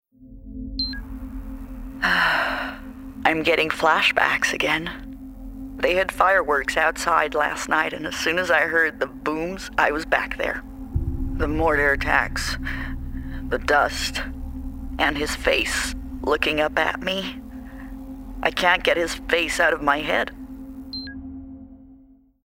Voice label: Gaming Soldier US